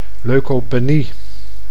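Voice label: "leukopenie", Dutch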